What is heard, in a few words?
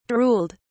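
"drooled", British English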